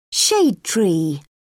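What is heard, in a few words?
"shade tree", British English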